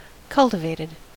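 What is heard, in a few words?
"cultivated", American English; pronunciations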